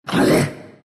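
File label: pain, Chinese; dota2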